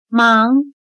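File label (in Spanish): "忙", chino mandarin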